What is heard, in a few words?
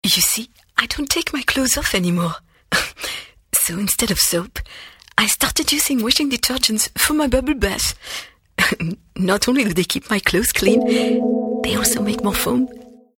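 English narration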